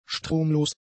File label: "stromlos", German; adjectives